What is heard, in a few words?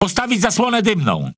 Speech Quick Commands Need Smoke, PL; World Of Warships